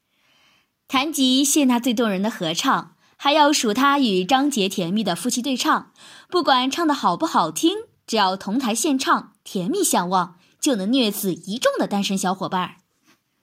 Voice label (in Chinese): 综艺类